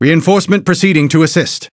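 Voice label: SPEECH, English; PVE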